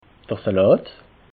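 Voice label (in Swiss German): "salat", Alsatian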